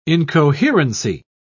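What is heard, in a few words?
"incoherency", British English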